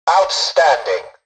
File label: tutorial dialogue, english; War Thunder